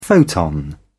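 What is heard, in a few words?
"photon", British English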